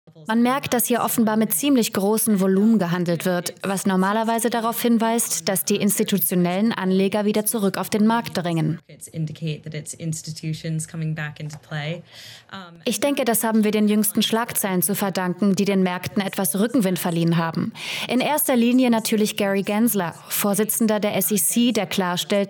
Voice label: voice over